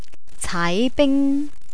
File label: "caaibing", Cantonese; entertainment